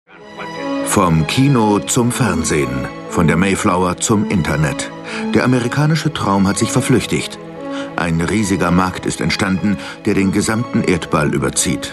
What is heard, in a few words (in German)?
Kommentar Neutral, voices